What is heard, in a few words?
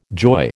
"joy", American